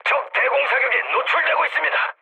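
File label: Korean damaged AA mood medium; speech; War Thunder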